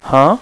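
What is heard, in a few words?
"Huh", male; VOICE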